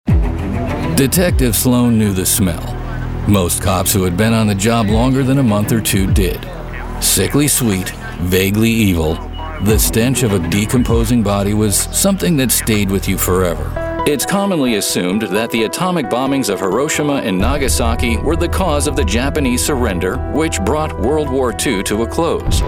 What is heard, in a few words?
TV Narration